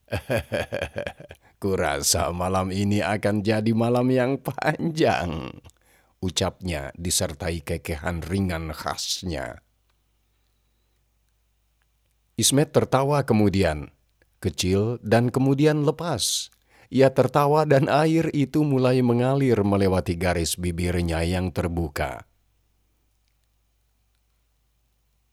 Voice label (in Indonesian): Story telling Pengisi Suara Pria; ストーリーテリング; インドネシア語 ネイティブ